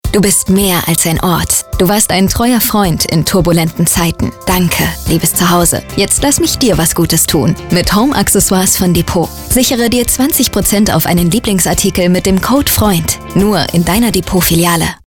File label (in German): Funkspot